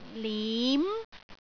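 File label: "lim2", Cantonese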